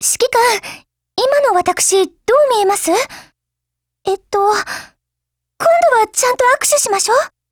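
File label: GAIN, Japanese; STG44Mod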